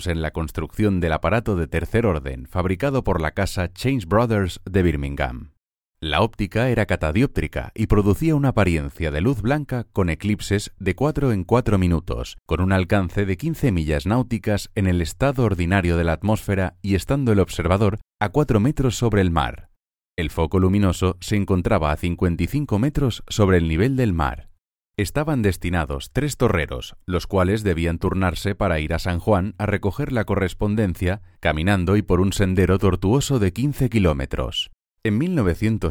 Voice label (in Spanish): audioguia del faro de punta grossa